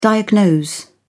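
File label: "diagnose", British English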